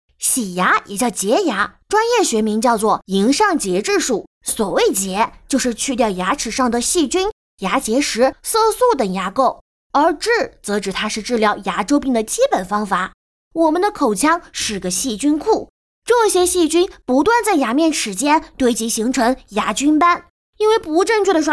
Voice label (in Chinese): 诙谐俏皮女声